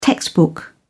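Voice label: "textbook", English, British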